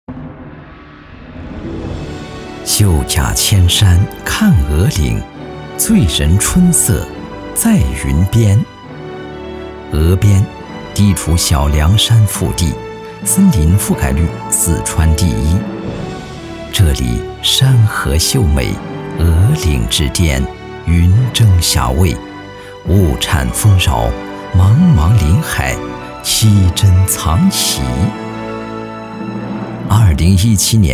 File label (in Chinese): 男 宣传片; 峨岭云边